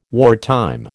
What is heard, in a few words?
"wartime", American